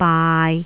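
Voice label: "baai6", Cantonese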